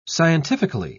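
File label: "scientifically", British English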